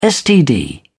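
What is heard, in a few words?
"std", British English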